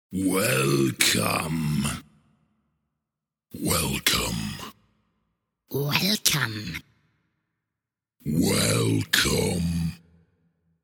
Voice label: Welcome Spoken, 4 x versions of the word ‘Welcome’ spoken in male voice.; Greetings and Goodbyes